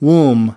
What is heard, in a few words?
"womb", us